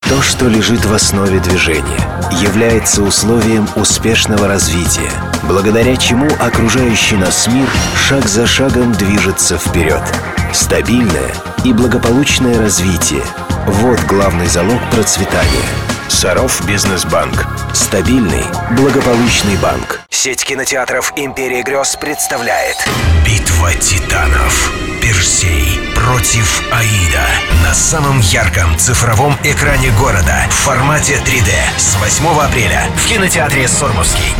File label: russian m commercial; voice demos